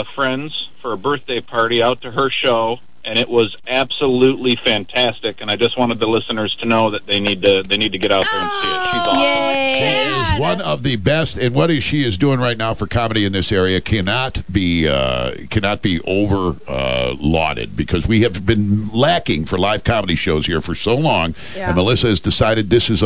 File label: phone call